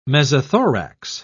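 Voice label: "mesothorax", British English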